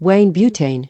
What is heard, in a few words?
female, voice drops